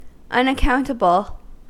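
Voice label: "unaccountable", US English; pronunciations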